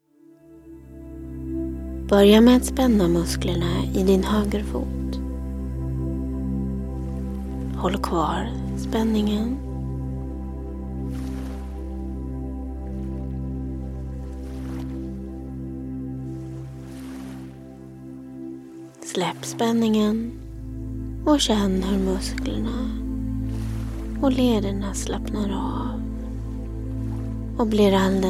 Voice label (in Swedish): Progressiv muskelavslappning Theta